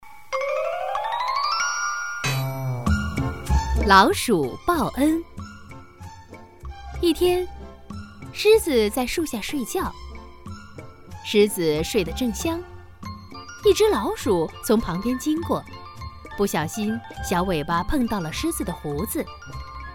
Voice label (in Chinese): 少儿故事; 少儿故事老鼠报恩